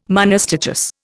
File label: "monostichous", Indian